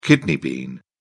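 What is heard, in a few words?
"kidney bean", British English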